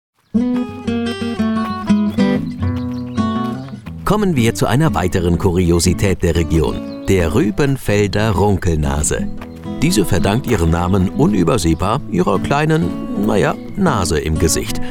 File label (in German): regional, Sprecher; runkelnase